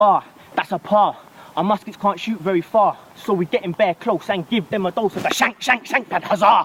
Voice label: british